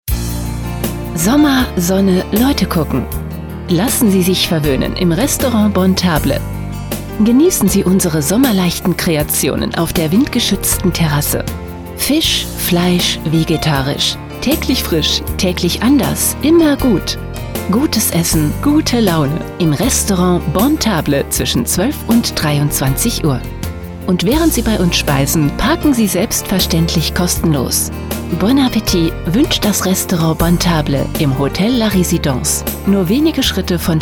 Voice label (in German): Telefonansage; La Residence Bonne-Table; Sommer